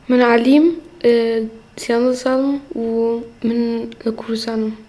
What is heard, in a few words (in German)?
Ali stellt sich auf Türkisch vor